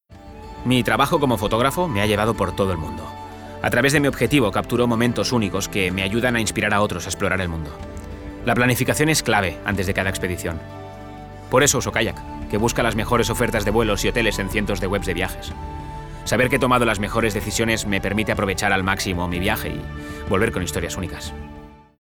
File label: male spanish comercial; ideasonora